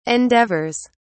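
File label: "endeavours", British English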